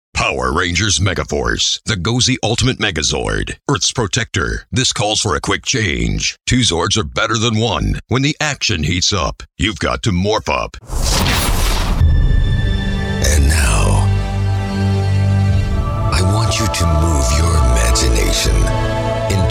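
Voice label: Video Game Trailers, voice over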